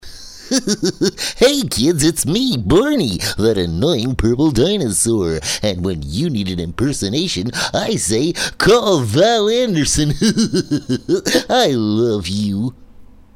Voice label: Impersonation; Barney The Dinosaur